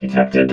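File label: "detected", robot voice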